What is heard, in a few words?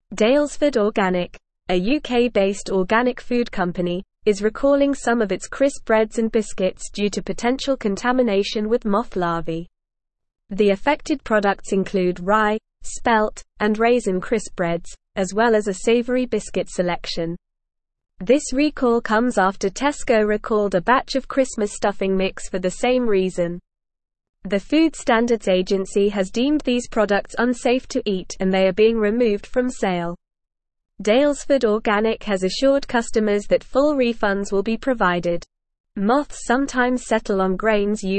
Advanced NORMAL Reading, English; English Newsroom